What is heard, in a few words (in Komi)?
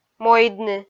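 "мойдны", Komi, female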